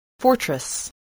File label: "fortress", English voice, spelling